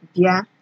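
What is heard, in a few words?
"dear", Nigerian Pidgin, female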